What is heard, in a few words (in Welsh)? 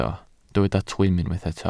"twym", Welsh